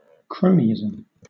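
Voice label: "cronyism", British English; pronunciations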